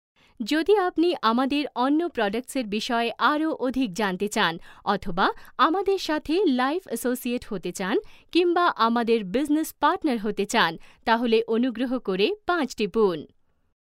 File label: Bengali Female